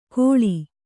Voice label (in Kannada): "ಗೋಳಿ", kannada